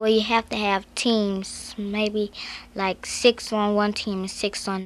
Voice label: Conversation with 10 year old black female Washington DC, american english dialect recordings